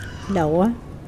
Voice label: tongue, Mokilese